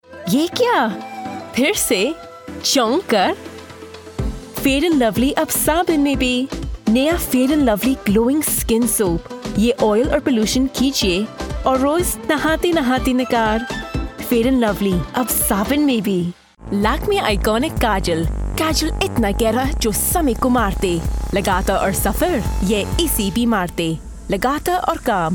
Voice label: Urdu

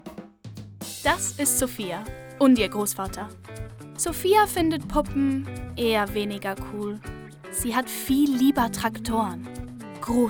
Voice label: Commercial German

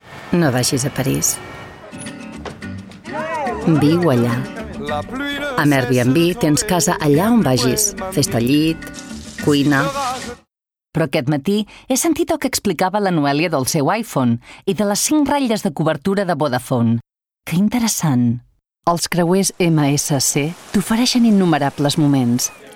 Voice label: Catalan

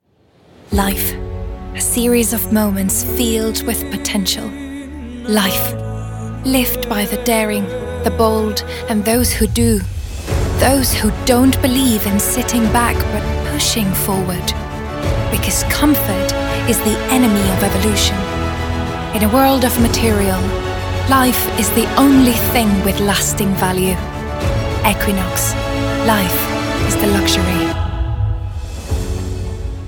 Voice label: English

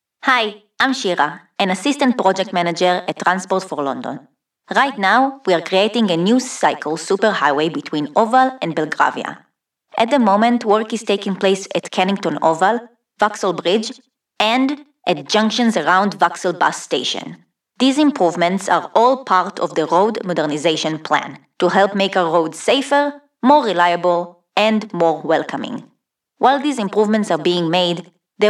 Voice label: Israeli accent in English; Hebrew, Middle Eastern

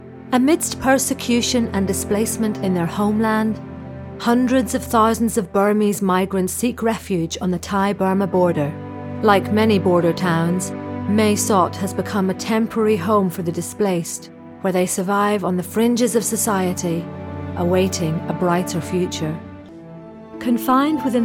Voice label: Narration

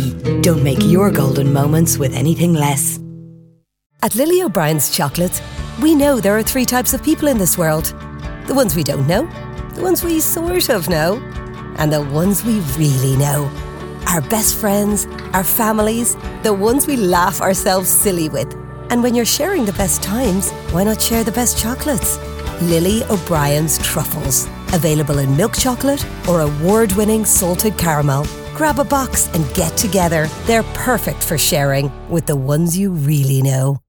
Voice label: Commercials, 20s-30s. Female. Studio. Irish.; Based in Ireland.

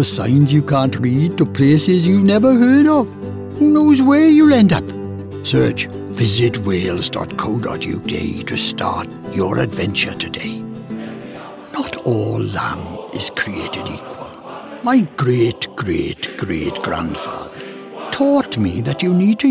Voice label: Welsh

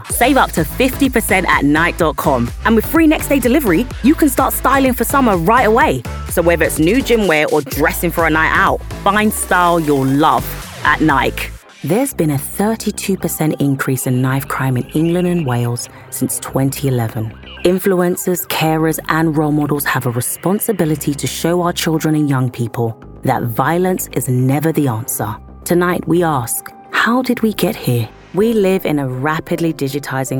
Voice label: Commercial

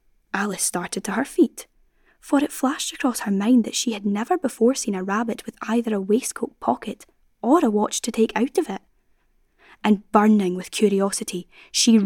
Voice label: Scottish Audiobook

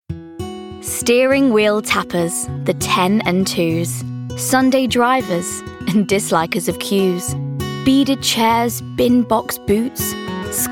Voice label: Commercials, female

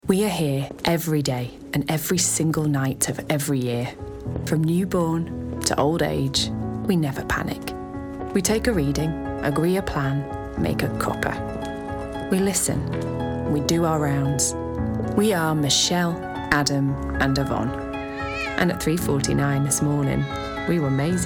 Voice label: commercial

